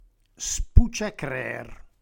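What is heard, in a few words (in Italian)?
"spuciacrêr", Reggiano; reggiane; dialetto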